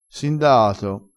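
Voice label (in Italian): "sindaato", vocabolario dialettale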